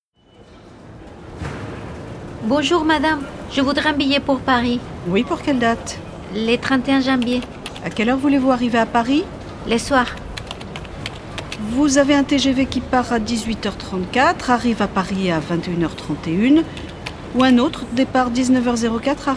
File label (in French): Dialogues prendre le train; lyon paris; RFIAsile